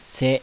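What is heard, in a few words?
kana "SE", Japanese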